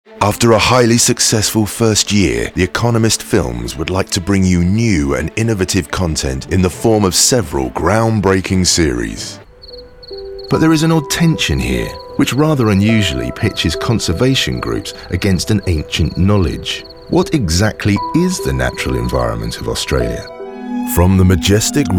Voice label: Corporate Presentation